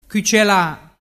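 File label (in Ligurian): "cucela", Ligurian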